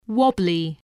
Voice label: "wobbly", spelling